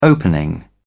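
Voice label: "opening", British English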